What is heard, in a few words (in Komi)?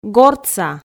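"гортса", Komi, female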